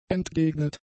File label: "entgegnet", German; adjectives